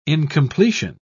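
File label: "incompletion", British English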